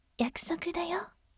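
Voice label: voice yakusokudayo gentle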